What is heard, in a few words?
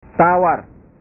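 "tawar", Indonesian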